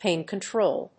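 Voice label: "pain control", English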